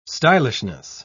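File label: "stylishness", English, uk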